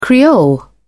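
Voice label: "creole", British English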